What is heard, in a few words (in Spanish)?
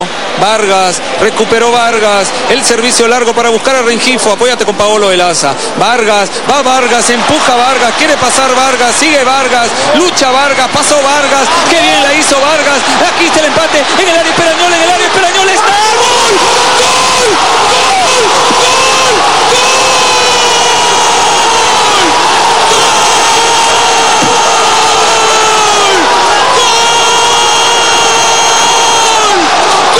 Gol peruano